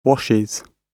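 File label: "washes", British English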